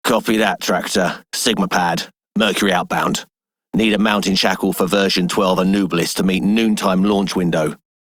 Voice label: Male Dispatcher, en; Dialog; Warframe; Solaris Venus

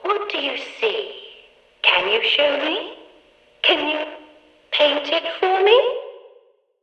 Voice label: Background Announcements

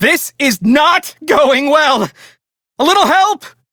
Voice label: Crew Near Death, Male; Warframe; Railjack; Perrin Sequence

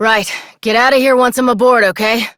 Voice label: Adult Op, en; New War

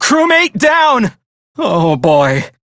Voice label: Crew Died, Male; Dialog; Warframe; Railjack Crew; Perrin Sequence

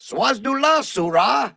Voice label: Ost Male en; Cetus

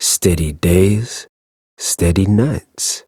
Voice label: Ambient Barks, en; Warframe; Mall Romance; Quincy

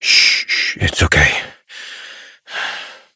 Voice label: Horse Calm Adult; Duviri

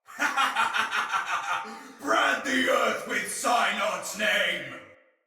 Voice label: Attack Heavy, Soldier Male; Barks Battle; Sinecure Soldier Male B

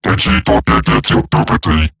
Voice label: Cover Me, Robot Large; Warframe; Eximus Corpus Robotic Large; Battle Barks

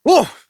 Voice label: Horse Stop Adult; Duviri

